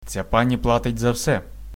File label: pay, ukrainian